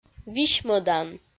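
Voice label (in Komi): "висьмӧдан", Komi, female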